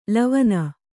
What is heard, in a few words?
"ಲವನ", kannada